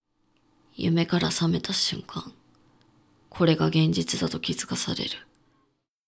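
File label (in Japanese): male, 低音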